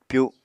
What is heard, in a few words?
"pyu", apatani